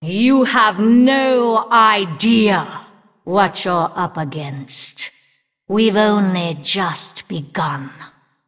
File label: Female Undead; Anduin